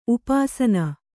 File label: "ಉಪಾಸನೆ", Kannada